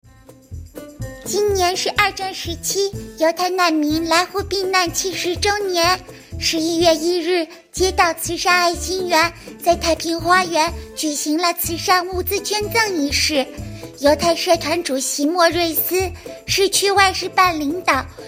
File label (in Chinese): 模仿小女孩